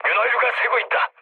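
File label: Korean damaged oil mood low, speech; War Thunder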